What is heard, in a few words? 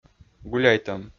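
"гуляйтӧм", Komi-Zyrian, male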